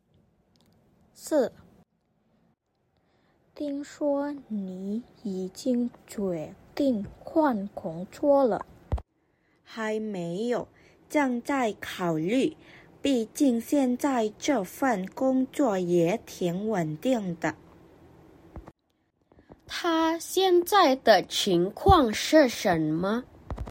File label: Mandarin; mandarin placement test hsk4